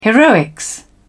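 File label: "heroics", British English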